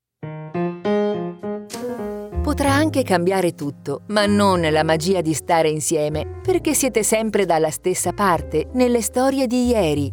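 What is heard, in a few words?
Italian, female, commercial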